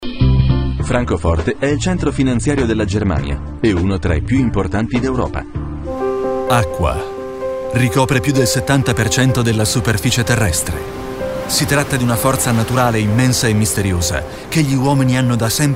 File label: Corporate compilation IT